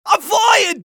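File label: scout taunt flip fun, male voice; taunts; tf2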